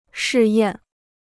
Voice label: "试验", Chinese

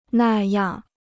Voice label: "那样", Chinese

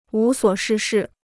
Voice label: "无所事事", Chinese

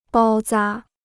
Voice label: "包扎", Chinese

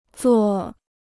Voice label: "座", Chinese

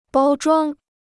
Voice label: "包装", Chinese

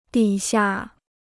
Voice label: "底下", Chinese

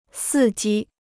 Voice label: "伺机", Chinese

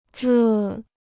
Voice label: "这", Chinese

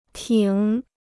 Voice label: "挺", Chinese

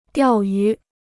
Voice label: "钓鱼", Chinese

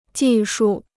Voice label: "技术", Chinese

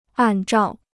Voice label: "按照", Chinese

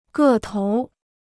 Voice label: "个头儿", Chinese

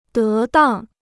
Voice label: "得当", Chinese

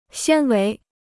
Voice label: "纤维", Chinese

